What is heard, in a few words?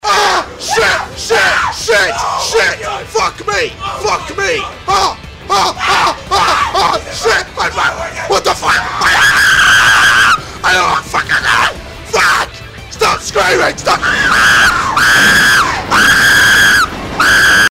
Scream; KSI; WTF Fuck Me